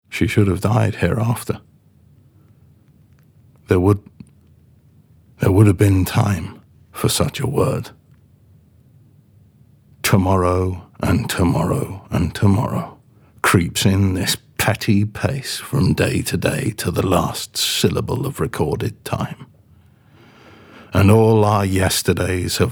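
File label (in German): UK English, Schauspiel, Dramatisch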